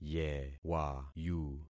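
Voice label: "yewayu", concatenated syllables; Affixing experiment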